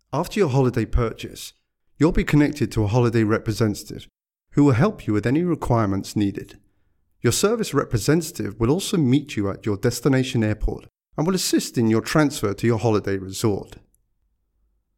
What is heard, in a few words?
Instructional holiday representative vo only